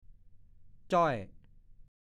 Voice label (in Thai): "จ่อย", ภาษาโคราช; ผอม โซ